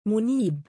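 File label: "muniib", Arabic, male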